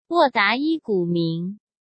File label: sent zh-CN